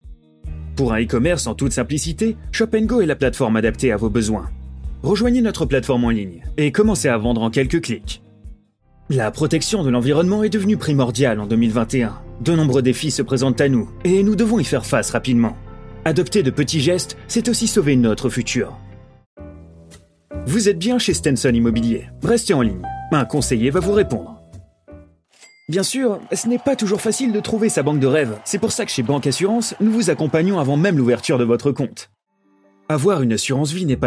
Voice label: French M Corporate; voice over

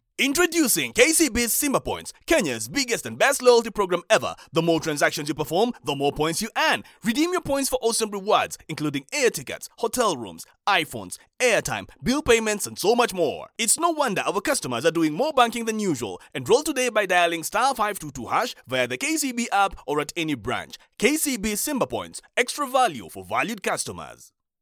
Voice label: Swahili M Commercial

